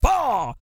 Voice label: COUNT, Vocal Planet, R&B & Dance, COUNTING; ILIO